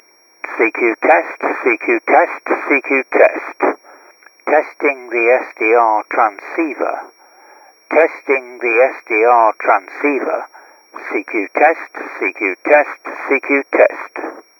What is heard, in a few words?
CQ Test air